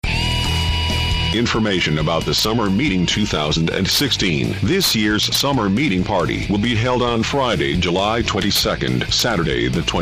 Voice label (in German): PROMO; Summermeeting 2016; Achims Free Radio Desaster